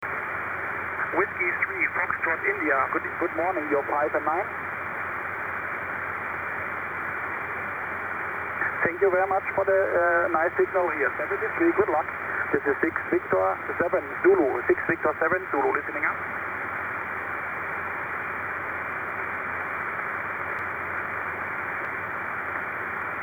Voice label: 15m ssb